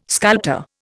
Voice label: "sculptor", Indian